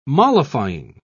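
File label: "mollifying", British English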